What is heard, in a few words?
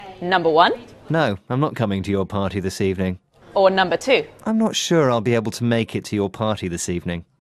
polite request